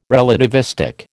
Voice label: "relativistic", American

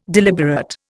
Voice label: "deliberate", Indian

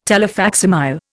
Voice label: "telefacsimile", Indian

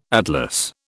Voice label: "atlas", British

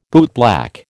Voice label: "bootblack", American

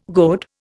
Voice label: "goad", Indian

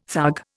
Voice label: "thug", Indian

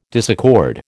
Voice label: "disaccord", American

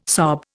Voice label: "sob", Indian

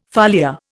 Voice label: "foliar", Indian

